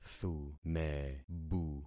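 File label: "thumebu", concatenated syllables; Affixing experiment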